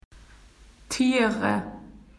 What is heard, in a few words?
animals, spoken AU; PictureDictionary